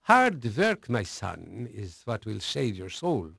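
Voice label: Dutch male, voice; Pirates Of The Caribbean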